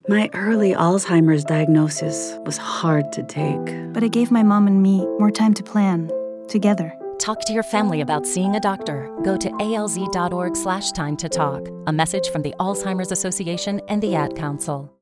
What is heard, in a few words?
English Radio